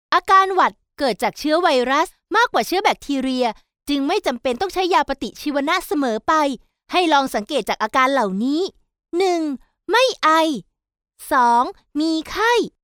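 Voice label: Thai, Thailand, female; thai voice over talent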